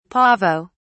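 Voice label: "pavo", British English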